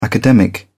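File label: "academic", English, British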